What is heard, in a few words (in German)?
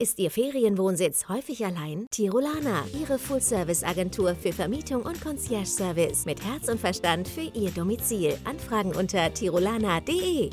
Spot; Tirolana